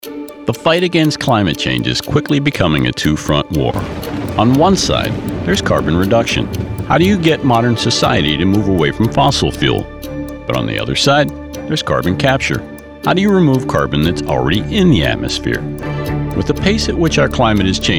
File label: Narration